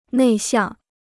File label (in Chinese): "内向", Chinese